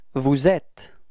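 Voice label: "etre vous", French; frans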